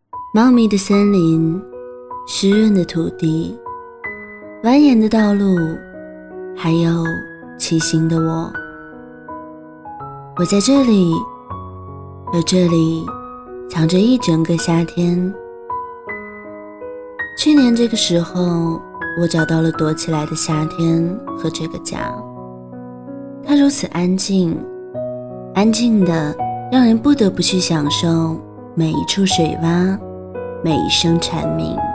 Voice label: 台湾腔独白

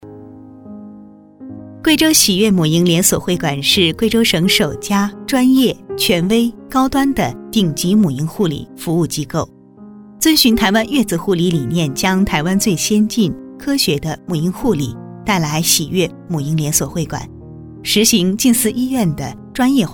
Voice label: 母婴广告

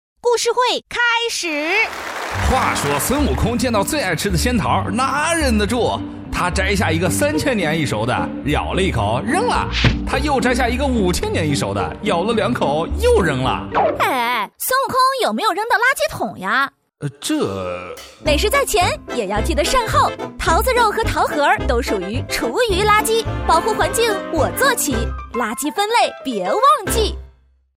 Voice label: 垃圾分类 故事会版

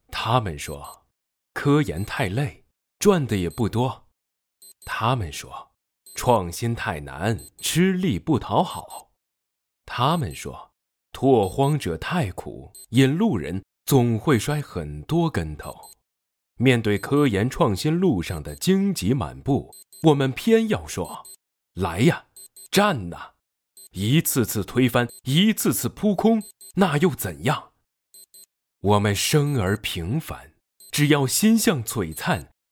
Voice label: 沉稳

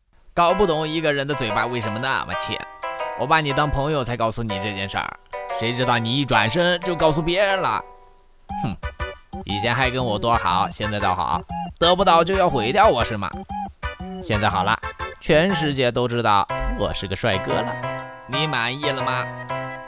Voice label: 沙雕配音

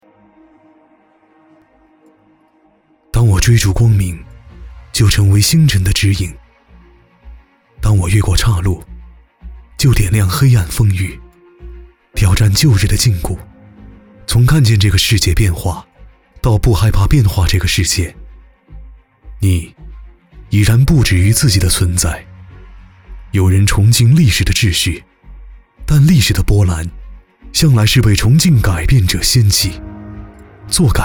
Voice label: 走心; 当我追逐光明

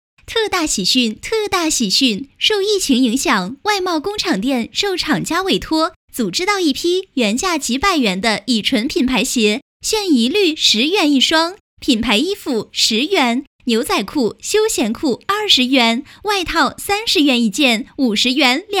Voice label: 促销干音

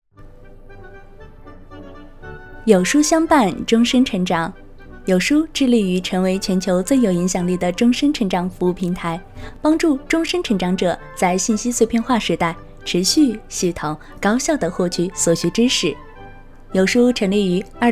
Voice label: 宣传

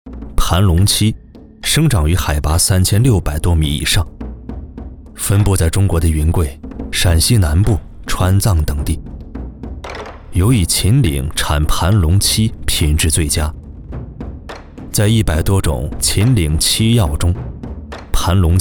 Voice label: 纪录片冷淡干练风

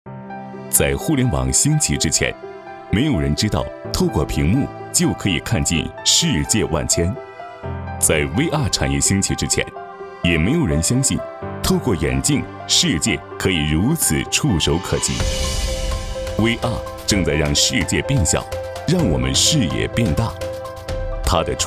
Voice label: 产宣; 鲸泰

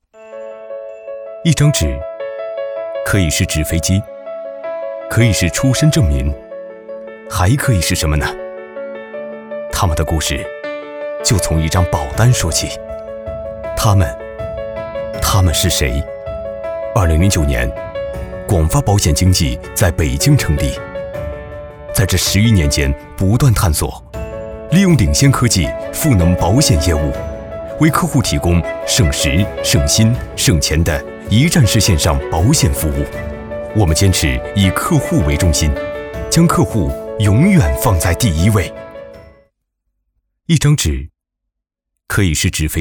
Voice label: 保险轻松广告; 广发保险

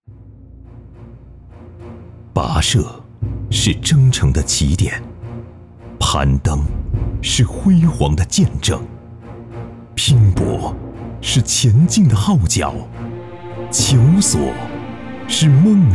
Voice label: 样音宣传片; 宣传片-《合肥》

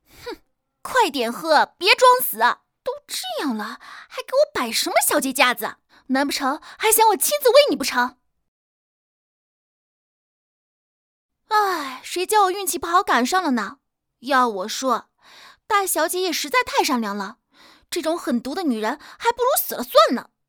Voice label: 恶毒女

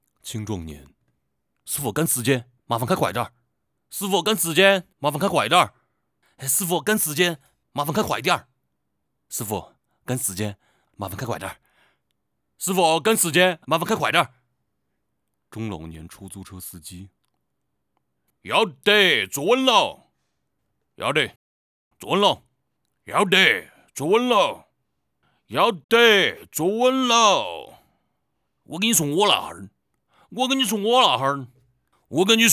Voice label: 青壮年师傅重庆试音

